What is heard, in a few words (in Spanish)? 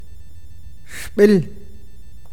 "hacha", awakateko